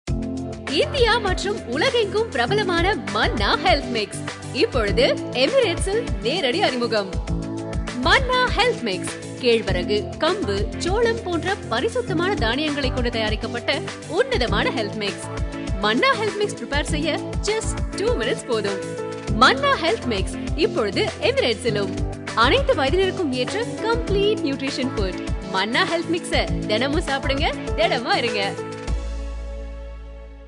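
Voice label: Tamil commercial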